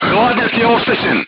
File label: voice message radiator damaged, serbian crew tanks